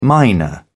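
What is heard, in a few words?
"mynah", British English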